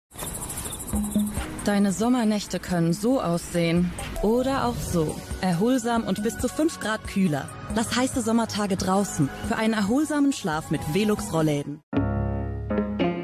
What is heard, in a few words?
German, Female, 20s-30s, voiceover; European; English (German Accent)